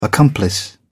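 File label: "accomplice", British English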